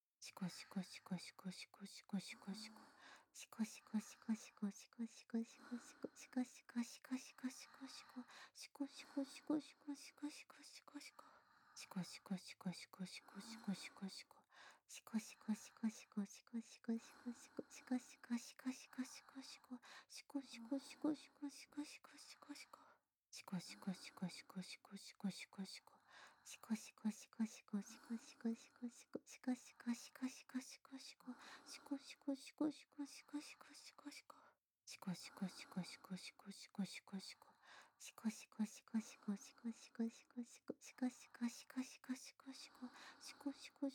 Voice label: シコシコ連呼 x 吐息

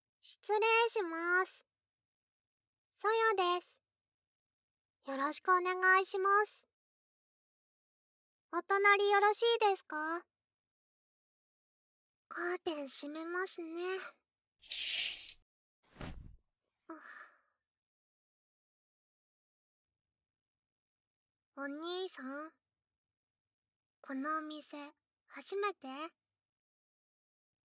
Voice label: ツンデレ, ASMR, SE有り; ロリ専門イチャイチャ対面密着べろちゅ～いっぱいラブラブメイドカフェ

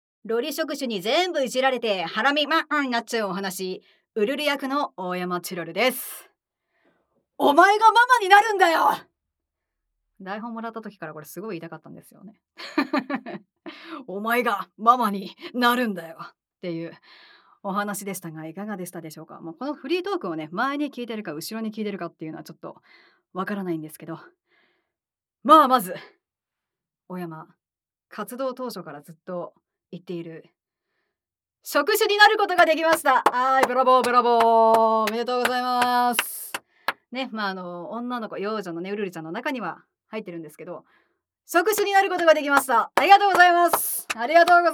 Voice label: フリートーク; ずーっと一緒★ロリ触手にぜーんぶ弄られて、孕みま〇こになっちゃうお話(女性向け)

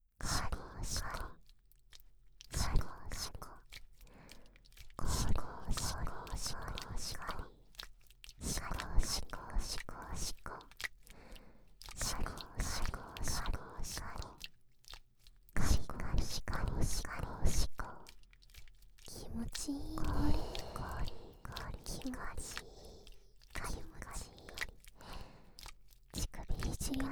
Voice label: ひたすら左右から射精我慢＆誘惑; メスイキループ; 双子JKのあまあま乳首オナニー専門店～W密着囁きでメスイキサポートしちゃいます～; KU100バイノーラル

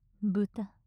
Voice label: 豚・近・左, ASMR

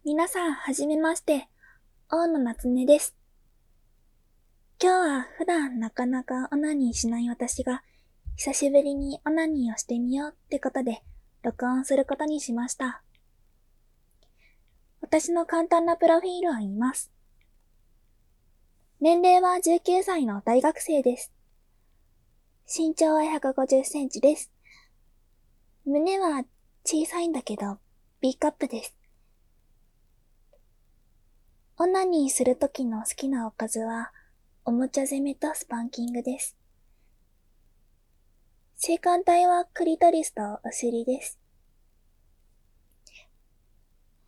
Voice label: 自己紹介, ASMR; ガチ現役大学生が久々にオナニーしたら; 実演; お母さんに聞かれてないよね・・・?

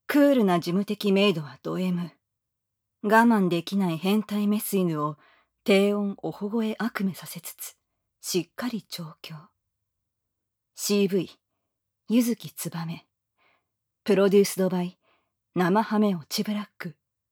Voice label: タイトルコール, ASMR

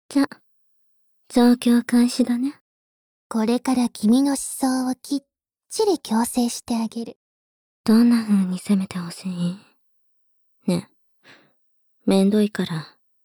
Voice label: 密着ねっとり耳責めと搾精バキュームフェラ, ASMR; SE音 無し

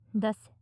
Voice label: 出せ 近 右, ASMR; マゾオスのあなたに敗北射精の快楽を叩き込む音声

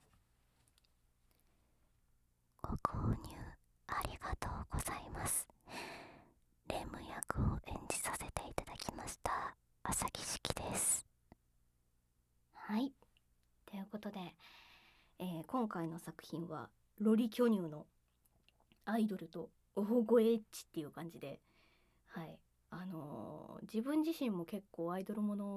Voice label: フリートーク; SEなし; KU100; オホオホ宣言。

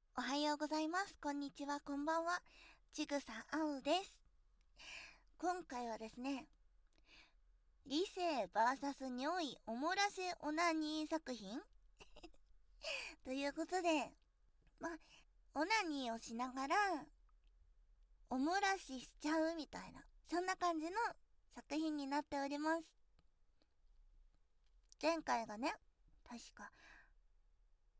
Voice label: 企画説明とご挨拶ですッ！(バイノーラルマイク収録); 実演音声

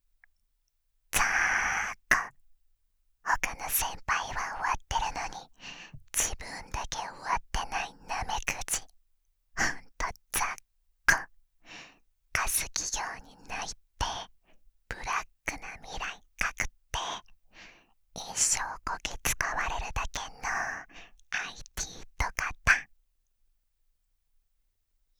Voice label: バイノーラルシーン, ASMR; 後輩のメスガキ系大学生に理解らせ催眠調教【わからせ催眠調教】

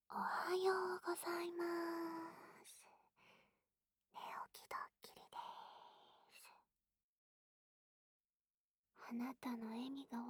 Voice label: 添い寝, ASMR; 添い寝ドッキリでいっぱいマーキングするにゃん

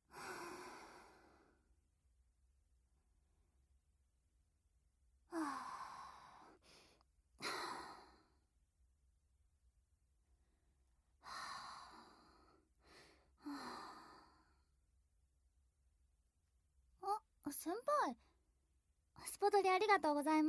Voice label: SE無し, ASMR; 後輩JK

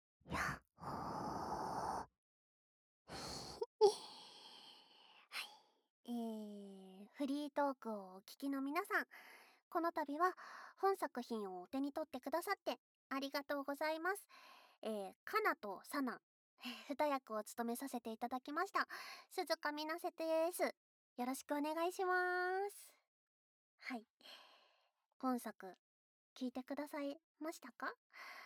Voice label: フリートーク, ASMR; ドスケベナースさんたち; KU100

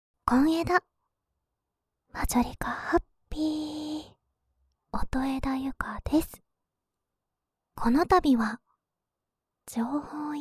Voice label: アフタートーク ASMR／オイルマッサージ; 情報屋少女とオッサン

